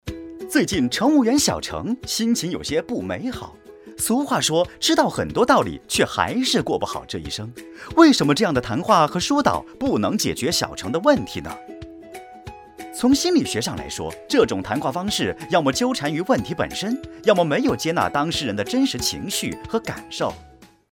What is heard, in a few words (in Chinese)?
年轻男 mg病毒动画搞怪自然; 飞碟说; 年轻男飞碟mg病毒动画搞怪自然